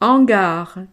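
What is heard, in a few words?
"hangar", French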